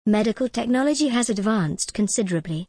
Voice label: en gb medical technology has advanced considerably